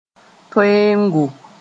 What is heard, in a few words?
"toeoongo", moore